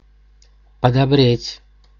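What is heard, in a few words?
"подобреть", Russian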